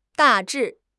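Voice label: "大致", Chinese; HSK6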